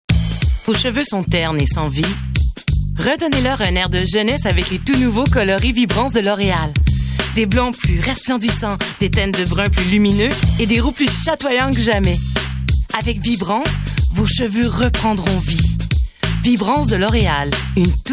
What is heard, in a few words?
pub vibrance